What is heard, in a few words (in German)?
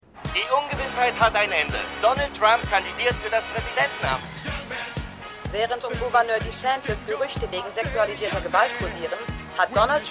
TV Sprecher TV Sprecherin; The Good Fight